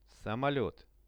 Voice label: "samolet", Russian; самолёт; transport; RUSSIAN VISUAL DICTIONARY

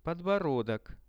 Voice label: "podborodok", Russian; подбородок; Это подбородок.; visual dictionary

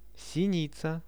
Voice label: "sinica" Russian; синица; birds; Какая это птица?

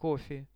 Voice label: kofe, Russian; products

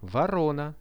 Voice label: "vorona" Russian; ворона; birds; Какая это птица?

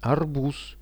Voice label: "arbuz", Russian; арбуз

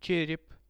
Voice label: "cherep", Russian; череп; Это череп.; visual dictionary

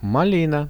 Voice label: malina, Russian; малина

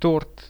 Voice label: "tort", Russian; торт; Это торт.; products

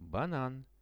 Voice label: "banan", Russian; банан

